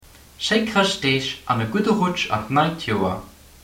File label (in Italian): lussemburghese